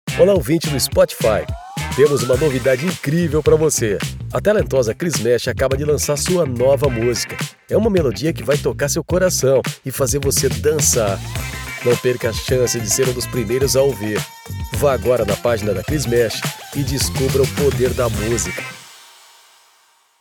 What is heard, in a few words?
portuguese brazilian podcasts